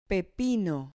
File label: "pepino", Spanish